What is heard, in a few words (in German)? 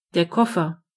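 "suitcase", German; gcompris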